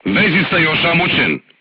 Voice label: voice message machine gunner wounded, serbian crew tanks